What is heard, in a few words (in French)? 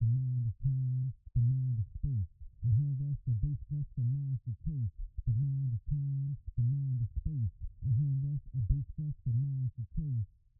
Voix LPF 100 Hz